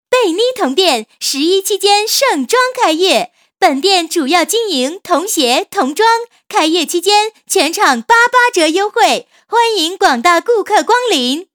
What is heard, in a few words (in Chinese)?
女 促销, 国语女声配音; 贝妮童店